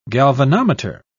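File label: "galvanometer", English, British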